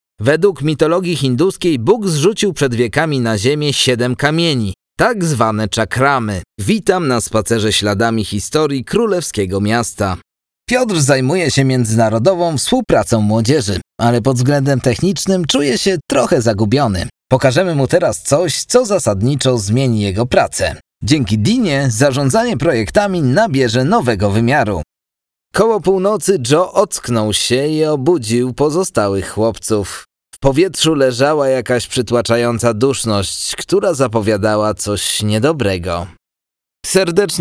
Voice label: polish commercial; Polonia; Artista de doblaje polaco interesante y experimentado. Experiencia en radio y televisión desde la infanci

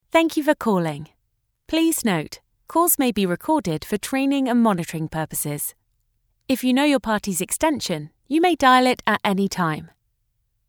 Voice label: english british ivr

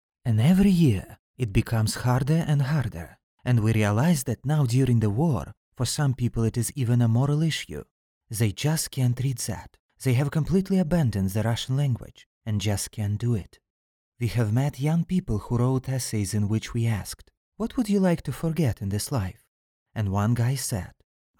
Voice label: russian; Locuciones en ruso (nativo)

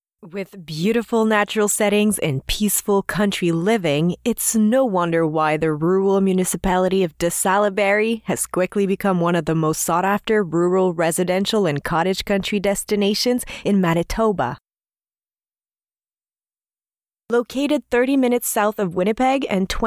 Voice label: english canadian commercial; inglés canadiense

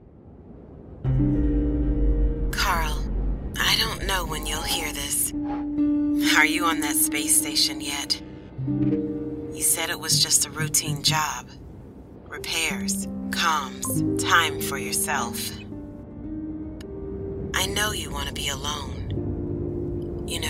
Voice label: English American video games

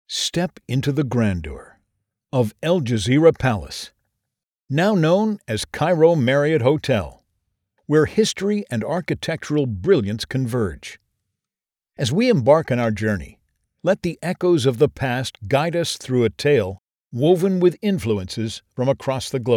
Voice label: english canadian audio guides

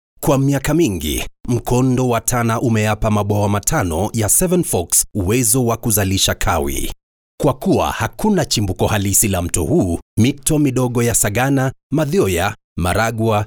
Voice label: english african documentaries

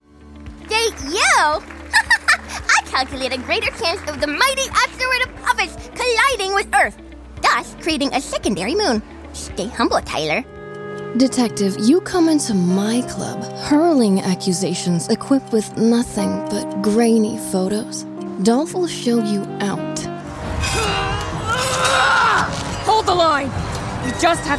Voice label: english canadian animation

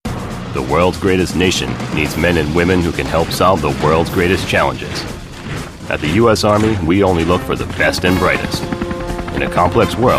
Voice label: English American commercial

